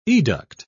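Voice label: "educt", British English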